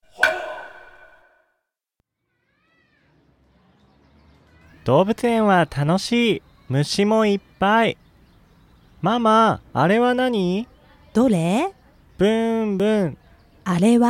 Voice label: dialog; JapanesePod101; Beginner Season 1